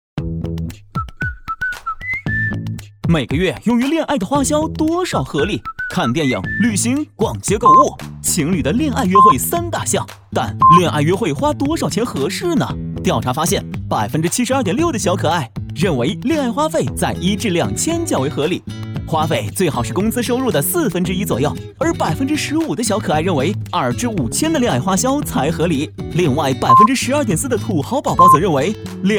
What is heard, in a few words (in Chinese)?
MG动画轻松幽默